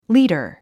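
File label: "liter", English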